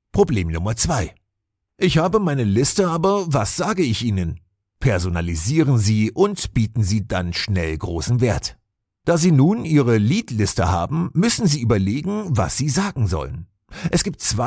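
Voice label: German, male, Audiobook; $100M Leads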